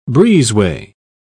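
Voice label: "breezeway", British English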